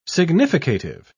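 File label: "significative", English, British English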